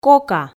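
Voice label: "кока", Komi, female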